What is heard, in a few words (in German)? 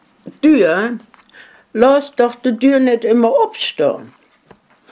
"Dür" im Satz; heimatfreunde weibern